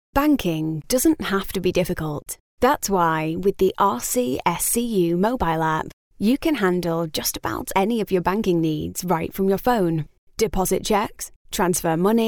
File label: Professional